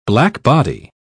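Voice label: "blackbody", British English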